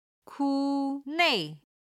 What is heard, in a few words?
"ku nei", chinese